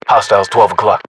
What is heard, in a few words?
uk threat infantry generic, battle chatter, English